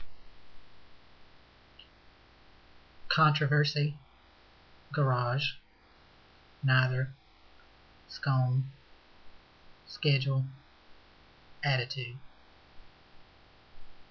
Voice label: male; green sea sc 1988